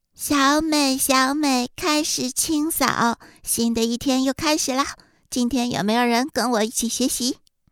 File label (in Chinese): 童声